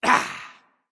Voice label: grunt wooo; smite; apollo